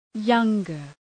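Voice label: "younger", English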